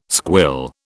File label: "squill", British